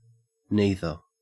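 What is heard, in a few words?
"neither", Australian English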